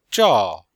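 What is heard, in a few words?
"zhao4", Mandarin Chinese; Cours de Chinois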